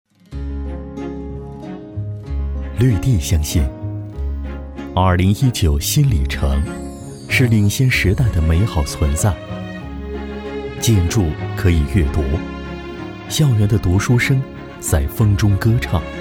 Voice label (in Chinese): 男 地产广告; 绿地地产